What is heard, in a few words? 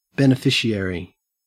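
"beneficiary", Australian English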